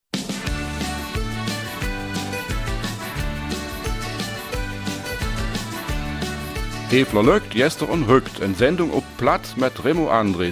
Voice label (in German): Eifeler Mundart; Die Karos aus Manderfeld